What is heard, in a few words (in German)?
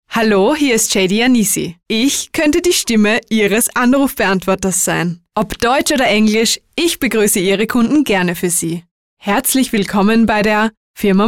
Sprecher Telefonansagen